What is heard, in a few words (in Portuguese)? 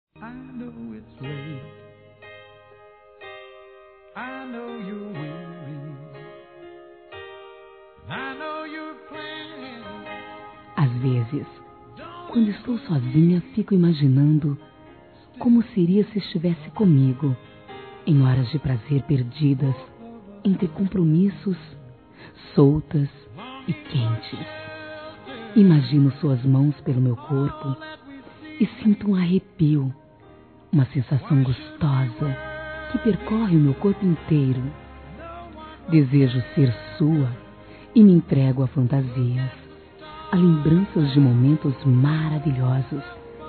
ROMANT.PICANTE, fem; Telemensagens; Sensuais